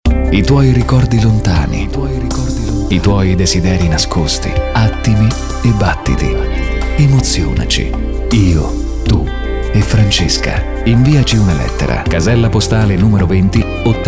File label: Radio Promo Soft